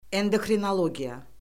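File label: "endokrinologiya", Azerbaijani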